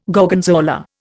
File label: "Gorgonzola", Indian